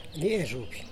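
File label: "niexhuby", Teotitlán del Valle Zapotec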